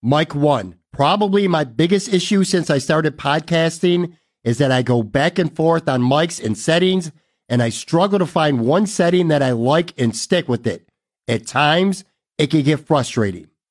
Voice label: MIC MUD SCOOP REVERB